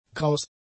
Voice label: "kraus", German; adjectives

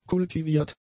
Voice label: "kultiviert", German; adjectives

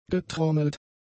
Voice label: "getrommelt", German; adjectives

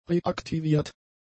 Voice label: "reaktiviert", German; adjectives

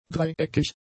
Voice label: "dreieckig", German; adjectives

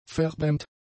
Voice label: "verbrämt", German; adjectives

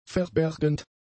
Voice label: "verbergend", German; adjectives

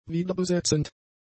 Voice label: "wiederbesetzend", German; adjectives

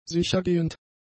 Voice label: "sichergehend", German; adjectives

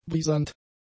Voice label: "brisant", German; adjectives

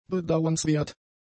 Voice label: "bedauernswert", German; adjectives

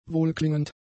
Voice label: "wohlklingend", German; adjectives

